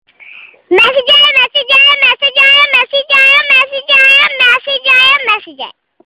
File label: Message aaya message aya, Sms ringtones